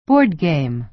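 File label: "board game", American English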